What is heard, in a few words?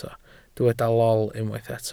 "lol", Welsh